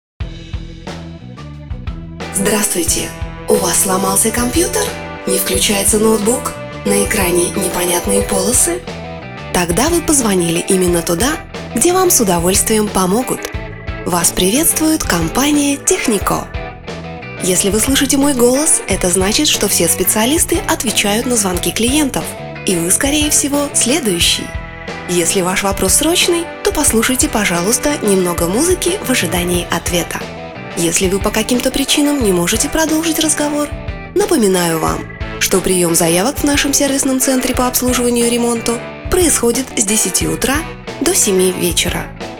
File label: IVR voice